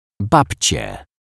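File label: "babcie", Polish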